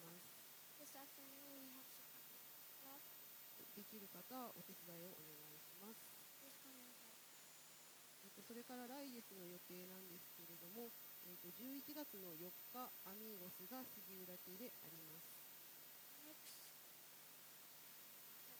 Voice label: announce; sunday message 2023